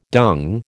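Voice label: "dung", American English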